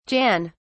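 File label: "jan", British English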